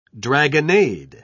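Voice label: "dragonnade", British English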